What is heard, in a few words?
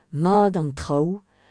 how are you, breton; Mat an traoù?